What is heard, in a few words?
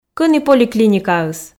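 "кӧні поликлиникаыс", Komi, female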